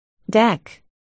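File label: "deck", spoken word, articulation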